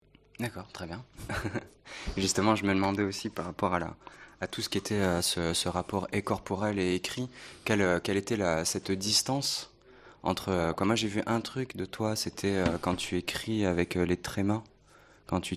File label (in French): entrevue; Julien Blaine; Signal sur Bruit 2007